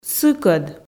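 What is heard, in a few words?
"сыкӧд", Komi, female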